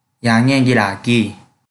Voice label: Kelantan-Pattani Malay